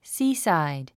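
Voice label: "seaside", English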